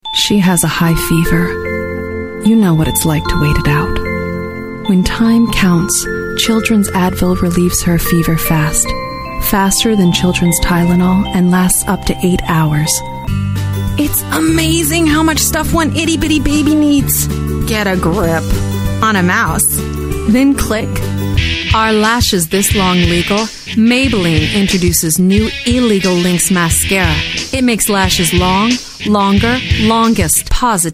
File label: Commercial